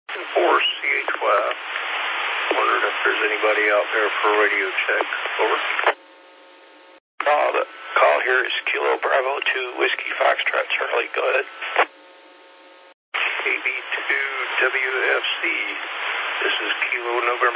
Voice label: qso rec simplex logic